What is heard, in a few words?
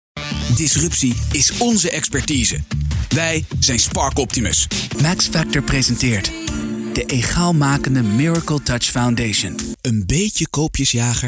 commercials